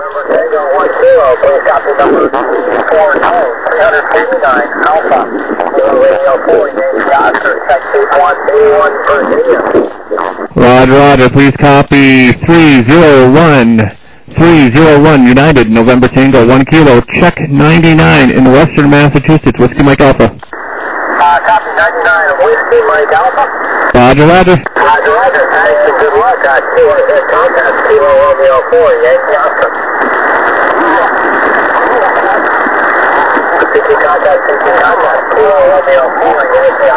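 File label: lower sideband 7MHz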